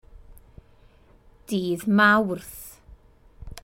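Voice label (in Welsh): "dydd mawrth", Welsh; Tuesday; Days of the Week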